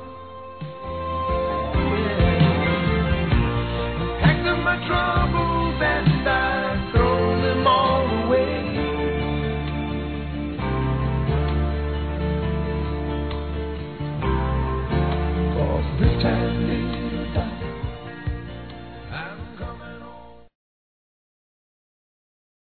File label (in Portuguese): ANIV. VOVÓ-F, Telemensagens; Aniversário Infantil